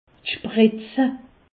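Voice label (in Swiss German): "spretz", Alsatian; medecine; piqûre injection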